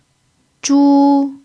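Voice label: "zhu1", Chinese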